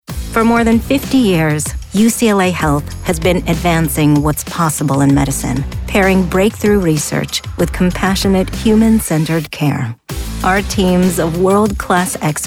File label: Corporate Narration; Business Voice Over